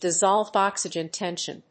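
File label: "dissolved oxygen tension", English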